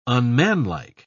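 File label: "unmanlike", English, British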